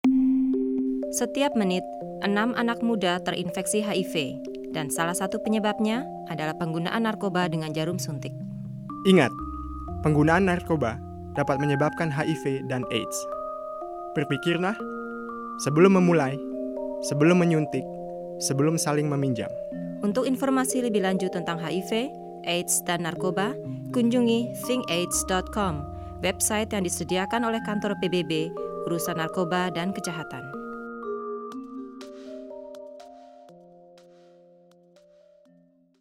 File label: spot indonesian music